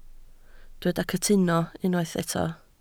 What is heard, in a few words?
"cytuno", Welsh